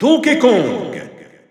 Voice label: French Announcer; Donkey Kong; SSBU